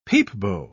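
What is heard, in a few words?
"peepbo", English, British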